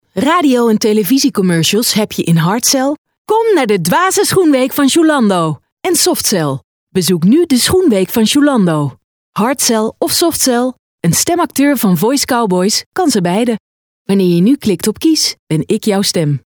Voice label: commercial

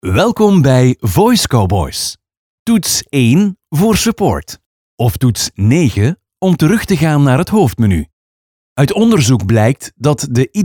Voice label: VOICEMAIL

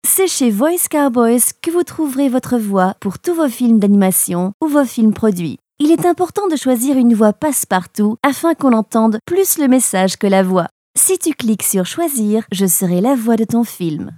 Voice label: ELEARNING

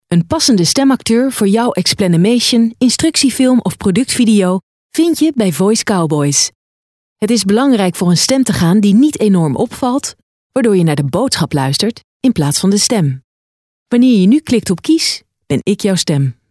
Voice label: Explanimation

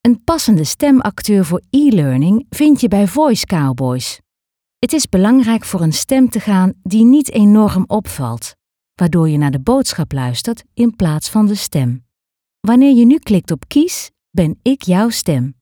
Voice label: ELEARNING

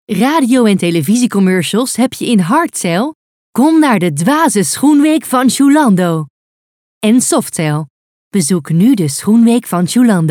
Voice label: Commercial

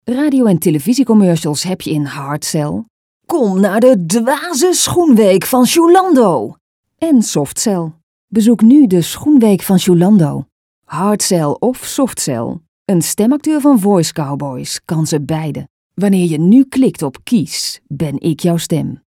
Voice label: Hardsell Softsell

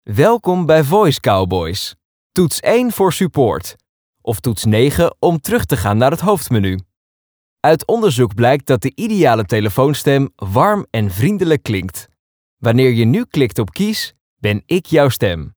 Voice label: VOICEMAIL

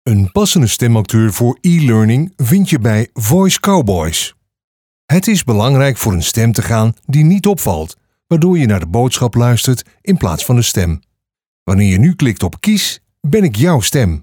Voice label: ELEARNING

